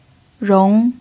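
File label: "rong" Mandarin Chinese, second tone, pinyin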